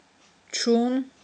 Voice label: "чун", aghul